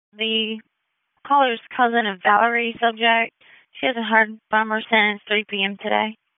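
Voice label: Dispatch, p25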